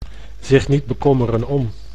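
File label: "zich niet bekommeren om", Dutch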